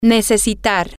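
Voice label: to Need, Spanish, female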